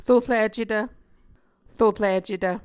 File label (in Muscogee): "roricetv", muscogee